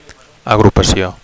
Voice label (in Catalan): "agrupacio", Catalan; (f) group